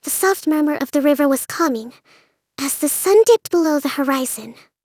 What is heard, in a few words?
english; kokomi; GPT-Sovits